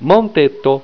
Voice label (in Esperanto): "monteto", Esperanto